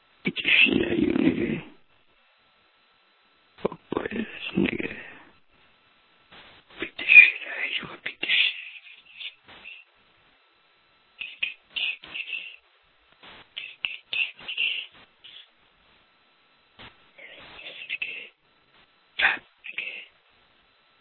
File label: whisper